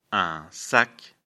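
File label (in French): "sac", French; afrikalan; lecture fr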